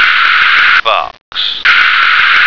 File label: "fox", American